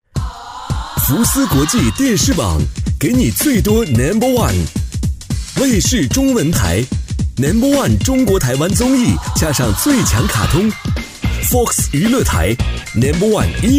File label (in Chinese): 男, 电台包装, 活力动感; 磁性稳重 轻松活泼; 福斯国际